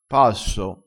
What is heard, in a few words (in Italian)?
"passo", crespinese